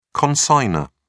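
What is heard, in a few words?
"consignor", English, British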